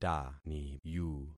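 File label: "taniyu", concatenated syllables; Affixing experiment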